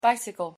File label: pronunciation en bicycle